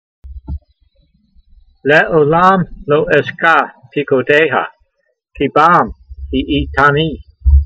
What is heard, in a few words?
voice, hebrew language; Psalm 119:93; le'o'lam lo-eshkah peekudeyha, kee bam hee'ee'ta'nee